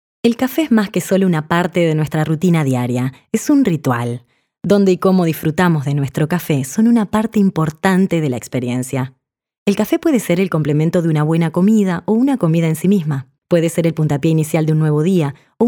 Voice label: local spanish